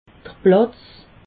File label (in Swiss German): "platz", alsace